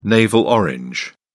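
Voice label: "navel orange", British English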